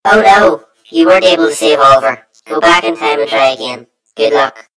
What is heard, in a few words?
story voiceover; yumpod